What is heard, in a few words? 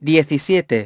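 "seventeen", English; iOS 4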